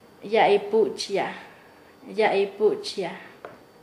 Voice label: "ayudarse mutuamente", Nasa Yuwe; paez